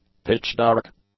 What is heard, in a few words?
"pitch-dark", English; adjectives